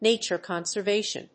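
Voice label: "nature conservation", English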